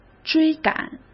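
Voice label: "追赶", Mandarin Chinese; chinese-flashcards; hsk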